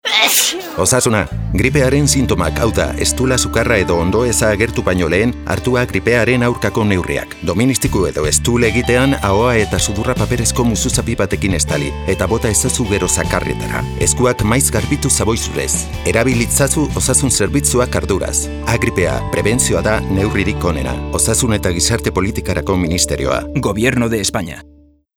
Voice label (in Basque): EUSKERA